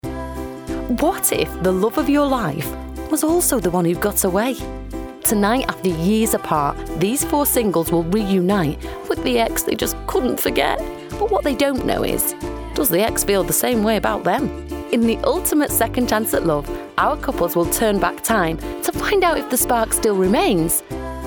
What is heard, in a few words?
playfu cheeky fun